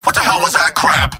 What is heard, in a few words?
scout jeers; mvm